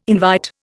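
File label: "invite", Indian English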